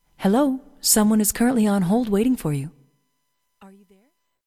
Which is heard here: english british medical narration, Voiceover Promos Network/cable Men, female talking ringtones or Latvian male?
female talking ringtones